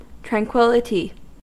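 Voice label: "tranquillity", US English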